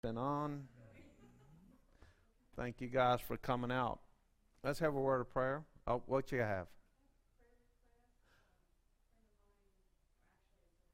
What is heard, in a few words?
Preaching